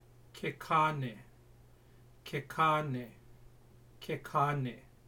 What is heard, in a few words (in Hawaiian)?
Ke Kane, hawaiian pronunciation; hawaiian pronunciation guide